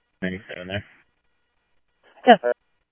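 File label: police radio scanner traffic, Mesa Simulcast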